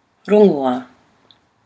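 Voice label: "rongoa", Maori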